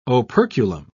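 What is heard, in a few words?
"operculum", British English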